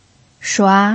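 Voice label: "shua", Mandarin Chinese pinyin, second tone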